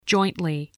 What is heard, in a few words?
"jointly", English